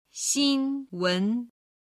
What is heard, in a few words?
"xinwen", Chinese